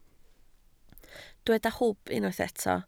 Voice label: "hwb", Welsh

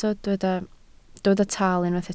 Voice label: "taal", Welsh

